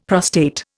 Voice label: "prostate", Indian; Dictionary